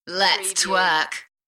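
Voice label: UK female, DJ vocals; Lets twerk; beanstalk audio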